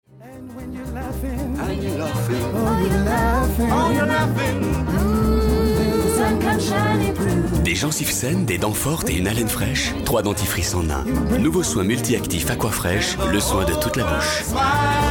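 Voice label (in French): PUB Aquafresh, voix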